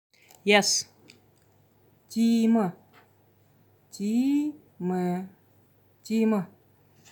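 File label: Buryat Yes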